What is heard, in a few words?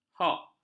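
"hock", Malay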